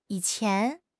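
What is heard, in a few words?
"yi3 qian2", Mandarin Chinese; HSK3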